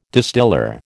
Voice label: "distiller", American